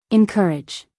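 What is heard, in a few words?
"encourage", American English, female; everyone-can-use-english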